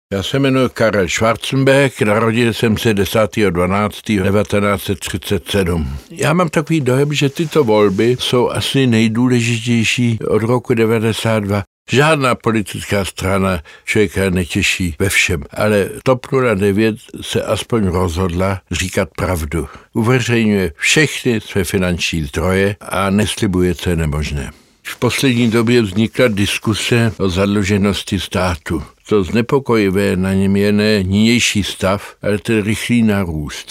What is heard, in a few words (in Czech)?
rozhlasovy spot; volby do psp 2010